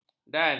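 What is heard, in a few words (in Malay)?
"dan", Malay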